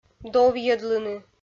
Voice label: "довйӧдлыны", Komi

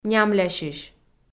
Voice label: "нямлясьысь", Komi

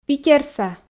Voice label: "питерса", f

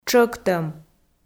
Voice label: "тшӧктӧм", Komi

